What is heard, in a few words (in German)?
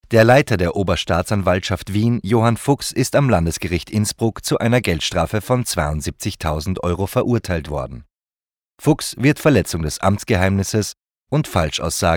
Nachrichten D